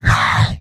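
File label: "no", robot; heavy; mvm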